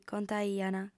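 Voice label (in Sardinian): "contaiant", female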